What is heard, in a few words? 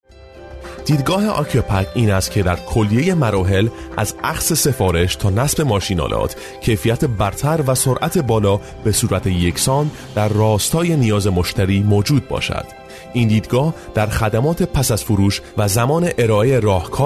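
Farsi, male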